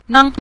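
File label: "nang", Mandarin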